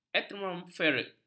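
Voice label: "atmosferik", Malay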